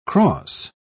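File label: "crosse", British English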